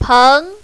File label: "peng2", mandarin, female